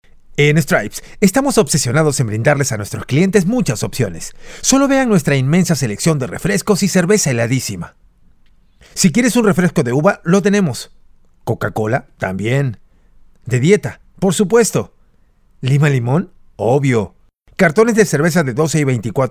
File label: Spanish Demo - Natural